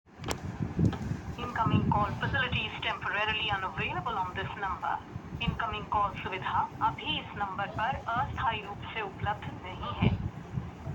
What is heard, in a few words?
call recording